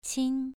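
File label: "qin", tone 2, pronunciation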